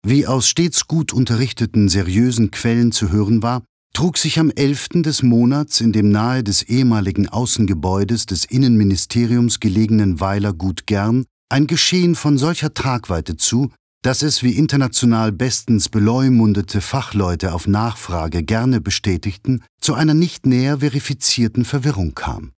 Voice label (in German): Trocken, Neutral